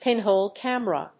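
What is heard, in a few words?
"pinhole camera", English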